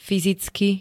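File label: "fyzicky", Slovak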